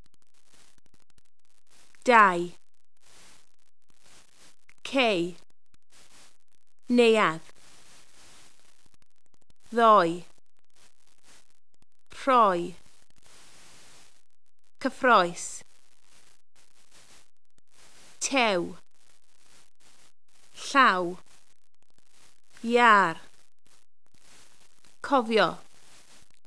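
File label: vowel combinations, Welsh pronunciation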